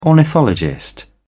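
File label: "ornithologist", British English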